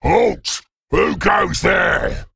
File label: Male Worgen